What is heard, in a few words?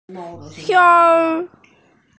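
"sou1", Mandarin Chinese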